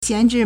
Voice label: "xian2zhi4", Chinese

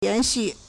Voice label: "yan2xu4", Chinese

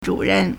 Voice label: "zhu3 ren4", Chinese

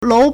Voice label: "lou2", Chinese

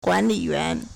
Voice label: "guan3li3yuan2", Chinese

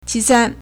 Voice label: "qi2san1", Chinese

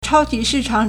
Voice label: "chao1ji2shi4chang3", Chinese

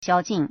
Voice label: "xiao1jin4", Chinese

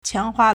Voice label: "qiang2 hua4", Chinese

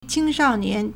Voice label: "qing1shao4nian2", Chinese

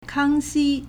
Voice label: "kang1xi1", Chinese

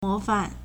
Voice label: "mo2fan4", Chinese

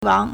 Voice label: "wang2", Chinese

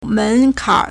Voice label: "men2kan3", Chinese

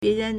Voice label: "bie2ren2", Chinese

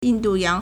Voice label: "yin4 du4 yang2", Chinese

